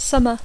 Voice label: "summer", English